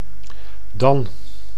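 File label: "dan", Dutch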